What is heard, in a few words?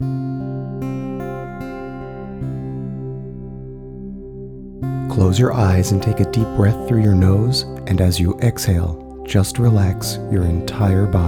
peace affirmation with music